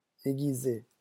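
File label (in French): "aiguiser", French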